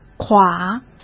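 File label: "垮", Mandarin Chinese; chinese flashcards; hsk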